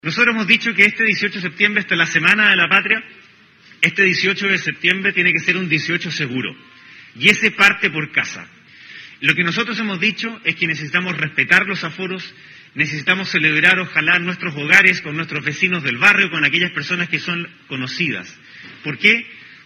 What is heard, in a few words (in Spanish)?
cuña; BELLOLIO